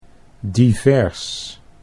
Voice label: "divers", Dutch